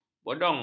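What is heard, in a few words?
"bodong", Malay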